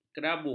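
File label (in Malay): "kerabu", Malay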